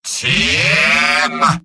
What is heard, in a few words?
jeers; medic; video games; source engine; tf; mvm